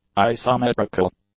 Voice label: "isometrical", english; adjectives